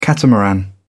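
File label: "catamaran", British English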